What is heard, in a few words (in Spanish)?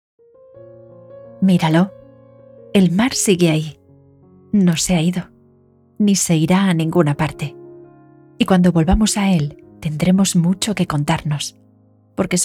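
generico España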